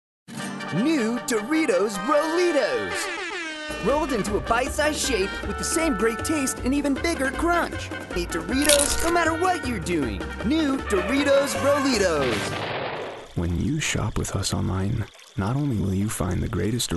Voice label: VO Commercial